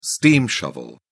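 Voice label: "steam shovel", British English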